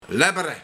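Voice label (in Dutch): "Lebbere", Luik, Traag smodderend drinken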